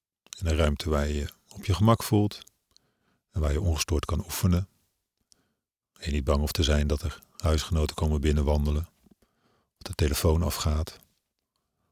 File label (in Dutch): Korte zitmeditatie